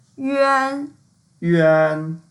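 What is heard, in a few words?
"yuan1", Mandarin Chinese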